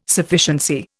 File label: "Sufficiency", Indian